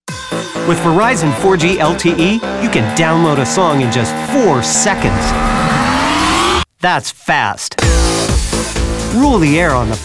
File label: Commercial